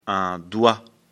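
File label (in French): "doigt", French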